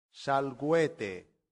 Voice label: "salvete", latin; santillana

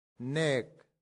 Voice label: "nec", latin; santillana

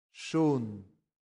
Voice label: "sunt", latin; santillana

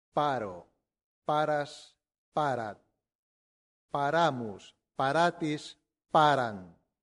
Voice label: "paro", latin; santillana

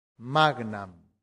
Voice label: "magnam", latin; santillana

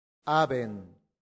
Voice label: "habent", latin; santillana

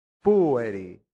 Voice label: "pueri", latin; santillana

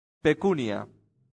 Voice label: "pecunia", latin; santillana

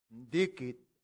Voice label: "dicit", latin; santillana

